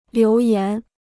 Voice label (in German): "留言", Chinesisch